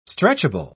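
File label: "stretchable", English, British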